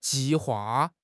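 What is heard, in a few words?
"ji4 hua4", Mandarin Chinese; HSK4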